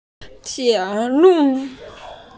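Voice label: "tie3 lu4", Mandarin Chinese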